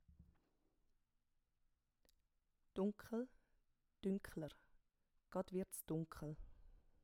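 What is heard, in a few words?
"dunkel", Swiss German; Wortschatz Kapitel 1; Kapitel 1 Hauptwortschatz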